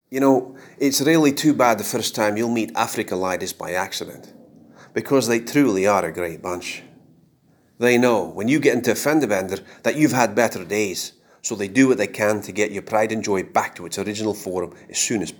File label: scottish